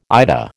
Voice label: "IDA", American